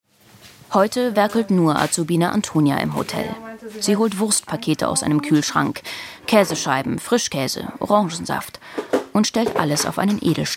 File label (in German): Azubis Hintergrund Info Kurz; LOFT VOICES